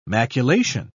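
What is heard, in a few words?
"maculation", British English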